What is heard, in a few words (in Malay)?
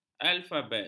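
"alfabet", Malay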